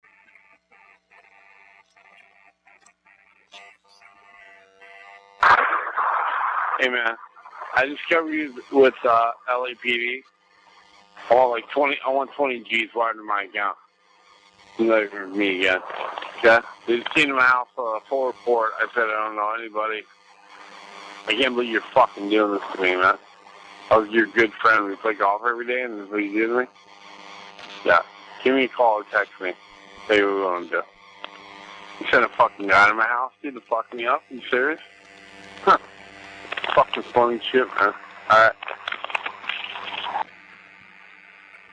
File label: conversation; Phone Conversation 2